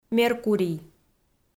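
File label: "меркурий", Komi, female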